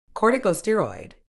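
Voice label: "corticosteroid", British English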